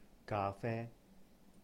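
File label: "ga-fē", Cantonese; coffee; 咖啡; gaa3fe1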